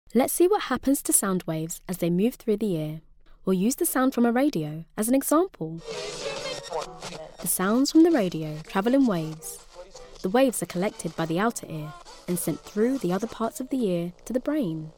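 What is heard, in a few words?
British English, Narration; GoLocalise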